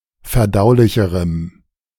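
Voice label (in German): "verdaulicherem", German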